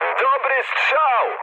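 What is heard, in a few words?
player killed enemy mood high, speech Polish; War Thunder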